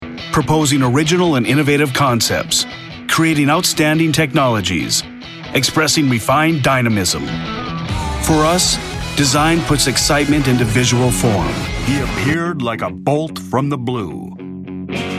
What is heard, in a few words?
Corp Narr